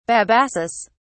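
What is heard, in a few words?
"babassus", British English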